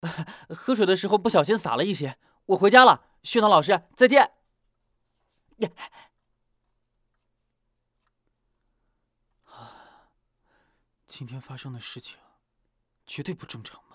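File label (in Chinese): 男主试音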